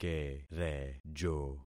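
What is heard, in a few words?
"kerejo", concatenated syllables; Affixing experiment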